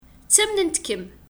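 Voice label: Kabyle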